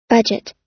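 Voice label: "budget", US English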